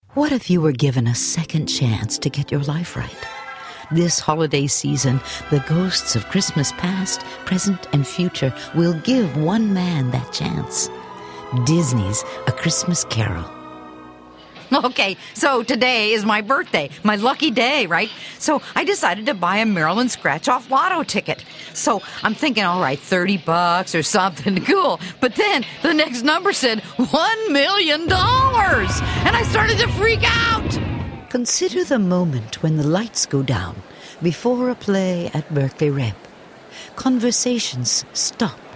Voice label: Commercial, Female voices